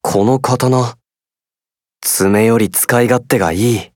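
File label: victory, Japanese; sdorica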